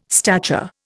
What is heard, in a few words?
"stature", Indian